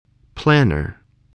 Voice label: "planner", English

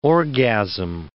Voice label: "orgasm", English word

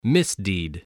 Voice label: "misdeed", voice, spelling

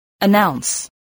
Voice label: "announce", English

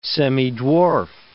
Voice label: "semidwarf", English

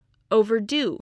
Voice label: "overdo", English voice

